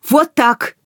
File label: characters voice human woman fight; Stalker Anomaly